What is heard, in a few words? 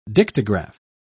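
"dictagraph", British English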